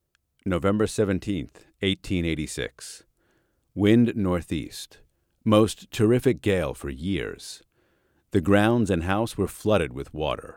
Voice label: straight read; Interactive Lighthouses; LIGHTHOUSE KEEPER VO